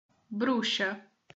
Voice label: "bruxa", portuguese